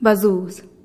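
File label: "bazuuz", squirrel, Teotitlán del Valle Zapotec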